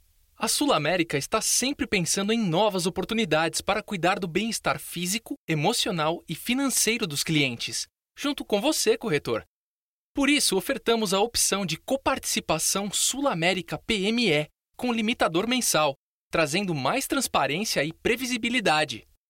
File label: portuguese brazilian corporate videos